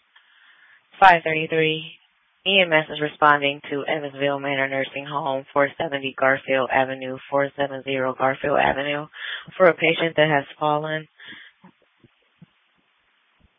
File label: Sheriff Dispatch